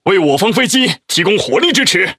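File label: Speech Quick Commands Ally Planes, Chinese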